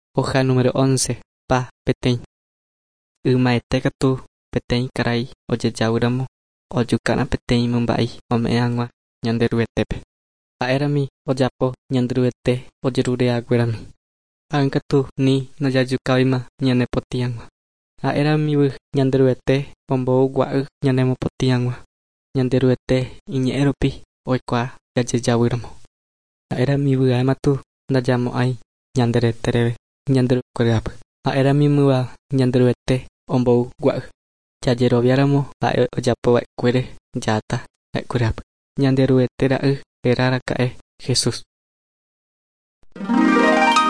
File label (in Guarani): guarani mbya; good news and words of life; bible